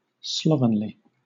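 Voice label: "slovenly", British English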